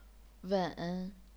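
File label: "wen", chinese pinyin, third tone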